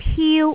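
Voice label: "piu1", Cantonese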